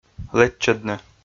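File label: "лэччӧдны" Komi, male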